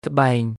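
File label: "ត្បែង", Khmer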